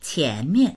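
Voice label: "qian2mian4", Mandarin Chinese; qiánmiàn; 前面; 前、前方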